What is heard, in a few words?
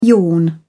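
"yawn", British English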